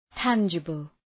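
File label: "tangible", English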